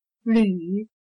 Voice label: "旅", Chino

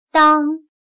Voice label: "当", Chino

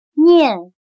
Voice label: "念", Chino

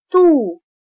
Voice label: "肚", Chino

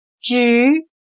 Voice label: "直", Chino

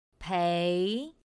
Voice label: "péi", Chino

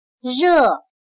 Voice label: "热", Chino

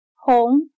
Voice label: "红", Chino

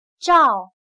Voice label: "照", Chino

